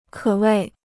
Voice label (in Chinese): "可谓", Chinese